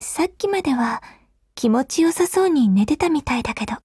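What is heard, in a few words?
Japanese; Groza; Dreamscape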